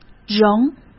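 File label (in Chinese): "仍", Mandarin Chinese; chinese flashcards; hsk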